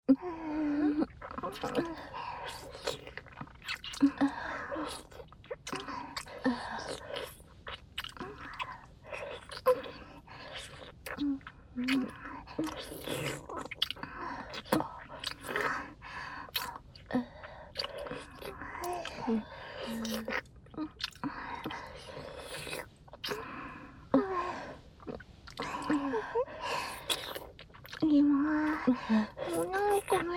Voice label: ダブルお掃除フェラ, ASMR

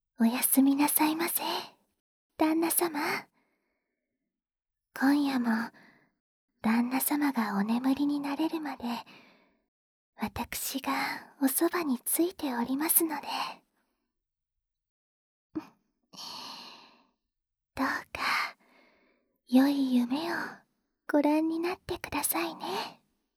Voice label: おやすみボイス

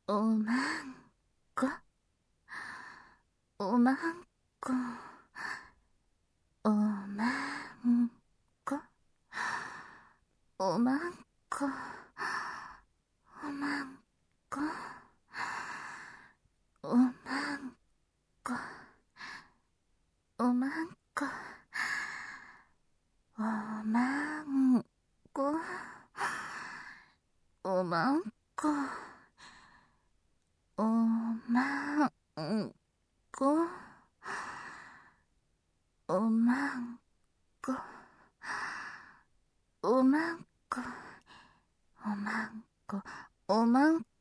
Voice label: 大人のお姉さんループ

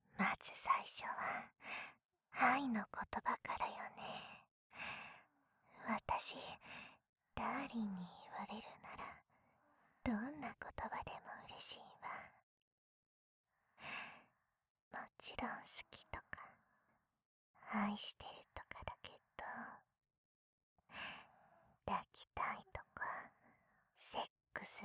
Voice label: 添い寝 右（SEあり）

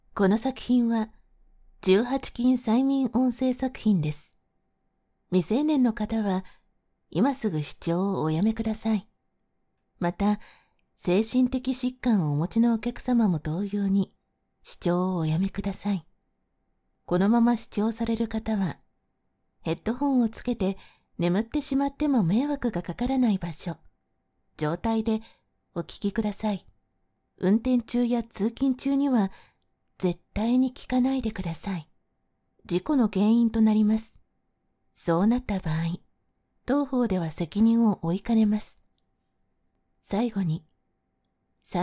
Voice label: 諸注意, 女教師

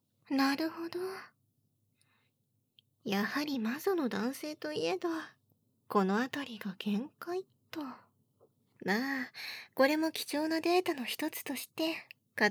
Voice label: 途中ギブアップ時の射精ボイス; シコシコオナニー耐久試験～変態さんはどこまで耐えられるかな♪～; 玄姫屋

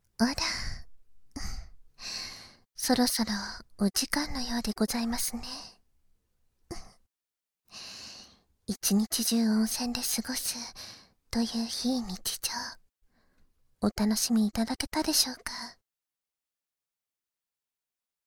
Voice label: エンディング（バイノーラルマイク収録 効果音なし）

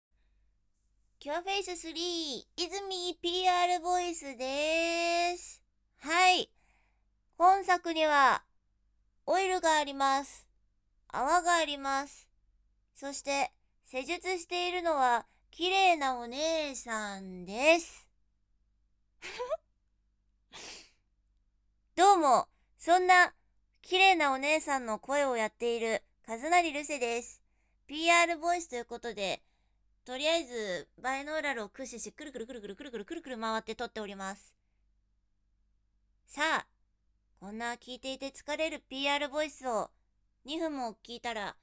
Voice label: PRボイス; Cure Face3-静

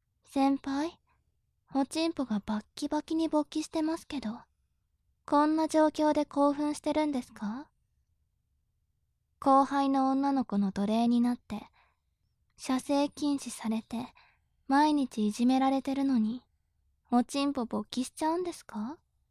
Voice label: 調教中音声, ASMR

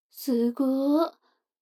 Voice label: 女の子台詞

